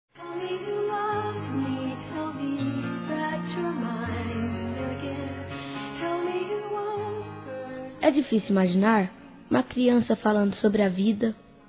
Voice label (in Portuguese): NEUTRO INFANTIL-M; Telemensagens 2017; Aniversários